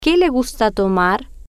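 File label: "Que Le Gusta Tomar", Spanish, female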